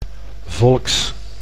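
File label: "volks", Dutch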